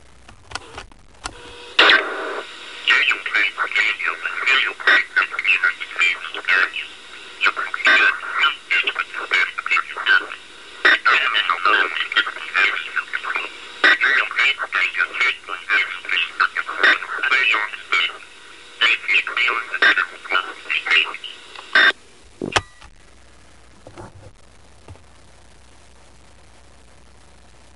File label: Midian TVS-2 Voice Scrambling STD; Midian TVS-2 Voice Scrambling (standard single-hop)